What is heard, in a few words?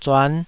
"zuan5", taiwanese